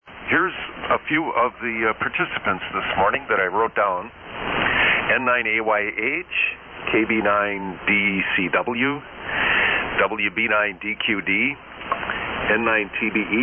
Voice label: SSB Net Check Ins